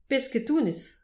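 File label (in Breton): "pesked honnezh", Breton; petit precis de prononciation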